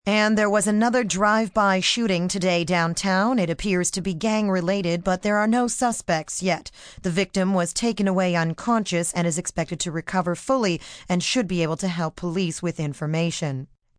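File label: News Drive By Female, Broadcast, news, female; news item on drive by shooting.; human voice speaking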